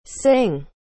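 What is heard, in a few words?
"sing", English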